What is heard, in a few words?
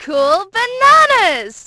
banana, Brit, Positive; Splashdown; XBOX